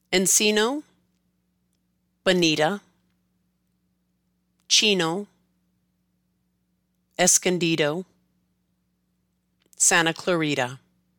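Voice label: Encino to Santa Clarita, pronounce spanish words used in english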